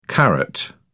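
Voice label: "caret", British English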